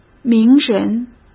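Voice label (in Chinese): "名人", Mandarin; chinese-flashcards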